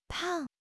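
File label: "pang4", Chinese; HSK3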